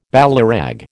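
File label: "ballyrag", American English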